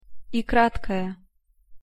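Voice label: "й", Russian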